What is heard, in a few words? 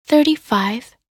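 "35", English; minute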